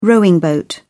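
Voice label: "rowing boat", British English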